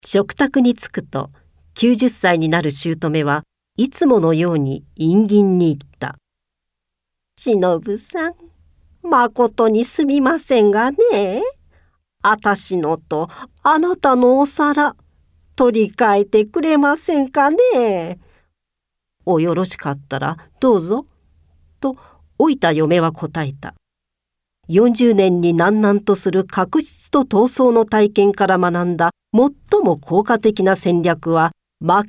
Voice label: narration